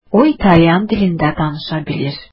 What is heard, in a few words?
she speaks Italian, azeri